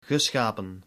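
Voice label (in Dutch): "geschapen", Dutch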